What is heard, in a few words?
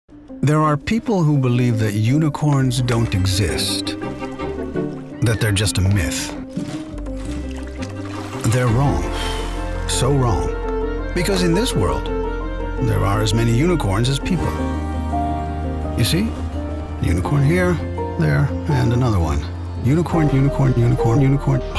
tongue in cheek; lego